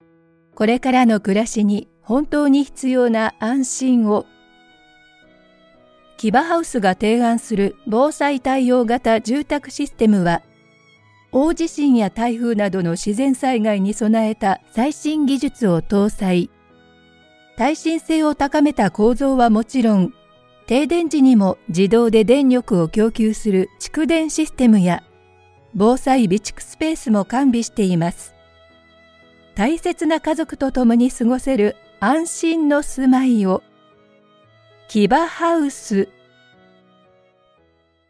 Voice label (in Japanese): ボイスサンプル 企業VP